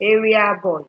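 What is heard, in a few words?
"area boy" Nigerian Pidgin, female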